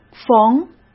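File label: "逢", Mandarin Chinese; hsk; chinese flashcards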